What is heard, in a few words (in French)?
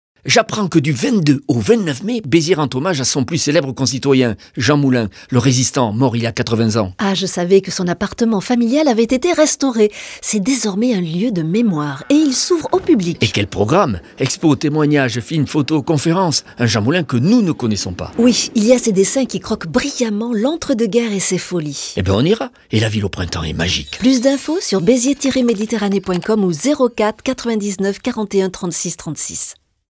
spot radio; beziers jean moulin